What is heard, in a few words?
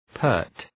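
"pert", English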